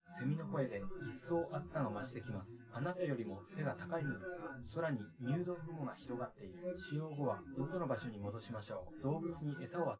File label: male synth conv; SiSEC10; underdetermined